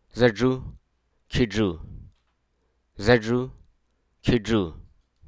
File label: "caution", hruso